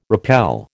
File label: "repel", American